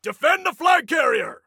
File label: Defend Flag Carrier, Soldier Male